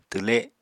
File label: "twle", Apatani